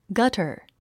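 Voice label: "gutter", English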